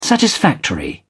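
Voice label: "satisfactory", British English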